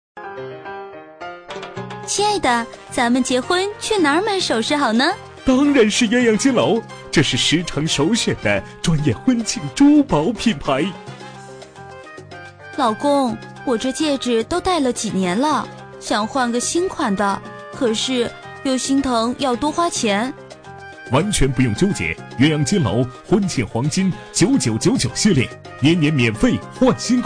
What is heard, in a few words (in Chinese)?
女 促销 双人广告